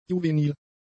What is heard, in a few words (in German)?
"juvenil", German; adjectives